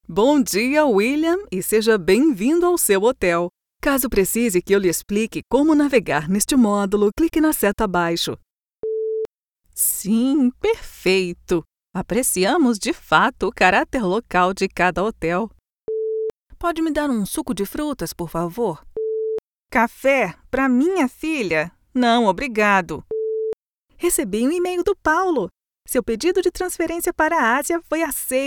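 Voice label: characters, Brazilian Portuguese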